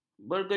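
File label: "bergejolak", Malay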